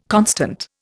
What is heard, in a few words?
"constant", Indian